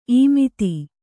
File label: "ಇಮ್ಮತ್ತಿ", Kannada